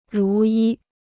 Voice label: "如一", Chinese

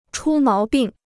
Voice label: "出毛病", Chinese

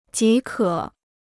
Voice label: "即可", Chinese

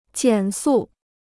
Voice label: "减速", Chinese

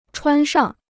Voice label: "穿上", Chinese

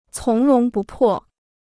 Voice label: "从容不迫", Chinese

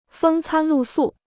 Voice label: "风餐露宿", Chinese

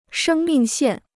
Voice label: "生命线", Chinese

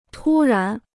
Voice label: "突然", Chinese

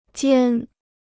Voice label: "尖", Chinese

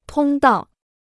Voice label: "通道", Chinese

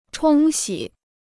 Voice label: "冲洗", Chinese

